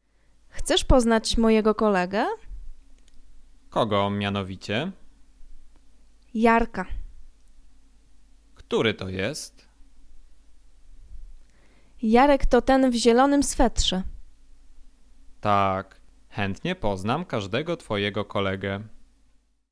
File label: conversation; lektorek; firstyear